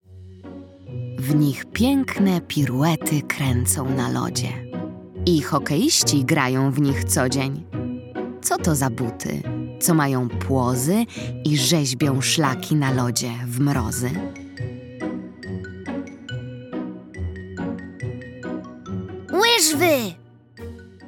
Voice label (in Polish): narracja; czasopismo mis; zima; zagadka